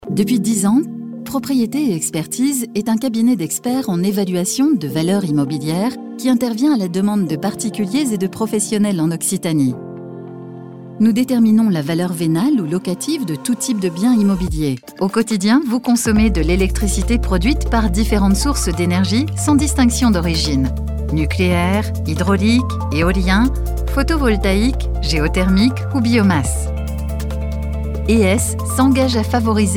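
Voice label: Corporate BtoC, French voice over; showreel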